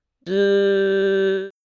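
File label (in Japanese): "ず", Japanese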